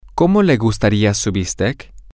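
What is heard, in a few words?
Spanish, female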